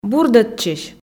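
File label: "бурдӧдчысь", Komi, female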